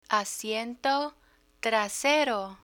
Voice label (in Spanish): "asiento trasero", Spanish; picture dictionary